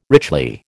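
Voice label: "richly", American English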